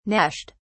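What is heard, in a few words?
"gnashed", British English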